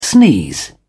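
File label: "sneeze", British English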